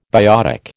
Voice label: "biotic", American